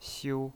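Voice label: "xiu", pinyin voice, first tone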